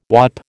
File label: "whaup", American